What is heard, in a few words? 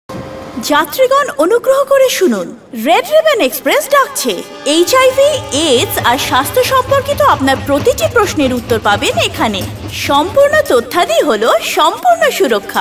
Radio Bengali